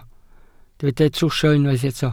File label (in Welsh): "trwsio", Welsh